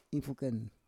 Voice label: "ifuken", Roon